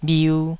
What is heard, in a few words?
"bhiu2", taiwanese